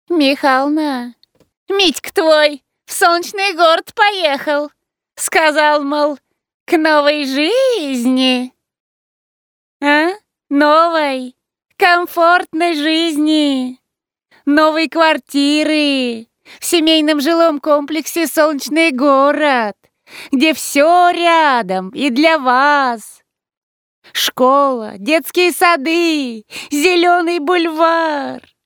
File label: Parodiya; Пародия на Надьку из х/ф «Любовь и голуби»